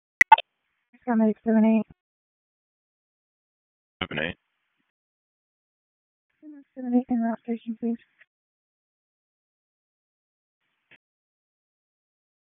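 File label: EMS DISP, radio; wake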